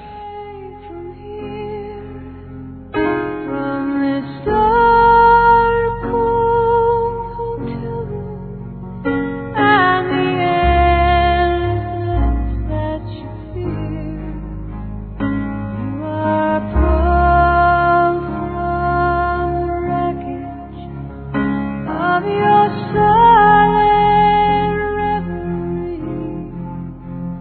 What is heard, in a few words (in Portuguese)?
Aniversário Cliente Masculino, Telemensagens; Empresarial; Telemensagens 2017